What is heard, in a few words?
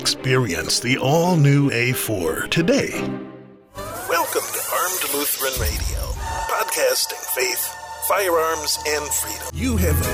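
voices mini commercial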